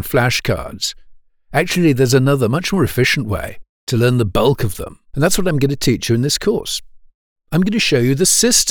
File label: eLearning Chinese Characters